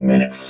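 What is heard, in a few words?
"minutes", robot voice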